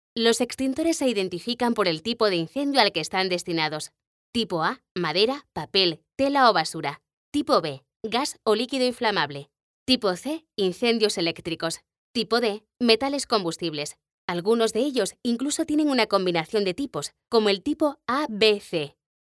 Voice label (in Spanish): elearning voz femenina curso formacion